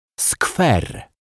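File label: "skwer", Polish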